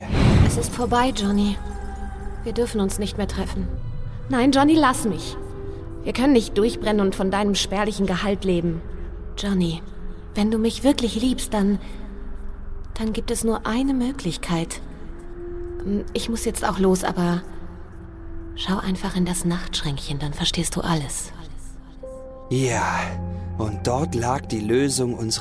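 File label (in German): hörspiel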